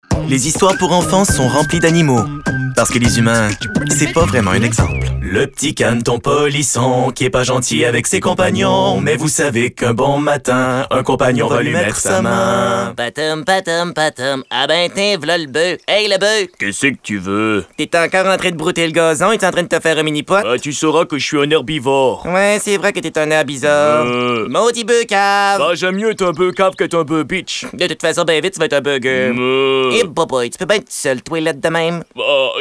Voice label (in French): Composition Voix